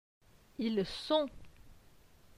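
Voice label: "Ils sont", French; phonetique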